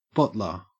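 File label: "bottler", Australian English; pronunciations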